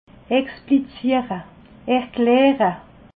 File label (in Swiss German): "expliziere", alsace